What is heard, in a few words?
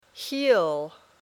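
"heel", English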